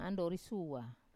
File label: "andori sua", Wamesa